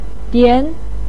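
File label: "dian2", Chinese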